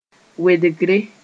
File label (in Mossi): "wedgre", moore